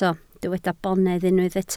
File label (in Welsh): "bonedd", Welsh